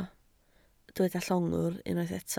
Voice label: "llongwr", Welsh